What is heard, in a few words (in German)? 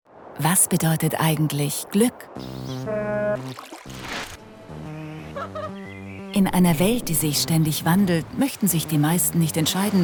Imagefilm laechelnd flott; Hansebelt